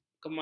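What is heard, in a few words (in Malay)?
"kemal", Malay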